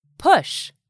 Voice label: "PUSH", English; 3000 words